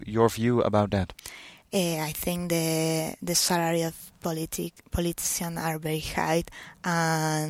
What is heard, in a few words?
formal